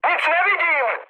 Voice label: searchlite, speech cze, mood med; War Thunder